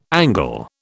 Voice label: "Angle", British